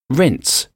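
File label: "rinse", English; to rinse; opłukać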